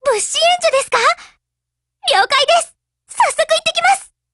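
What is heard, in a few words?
OPERATION BEGIN, Japanese; GF Alarm; Carcano1891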